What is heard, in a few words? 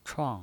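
"chuang", Mandarin Chinese, fourth tone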